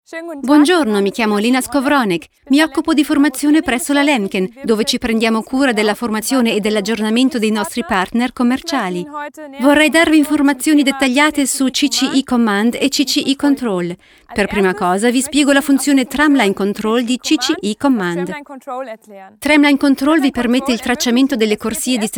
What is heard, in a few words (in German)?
Voice over Industriefilm italienisch